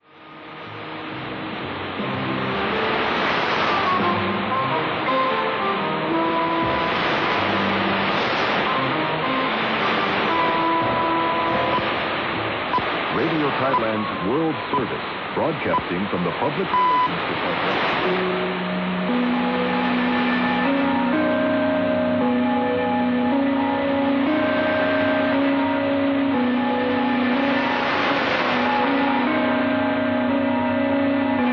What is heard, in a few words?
9390 KHz english-german; Radio Thailand; IC R-71E; Fribourg